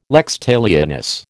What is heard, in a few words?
"lex talionis", American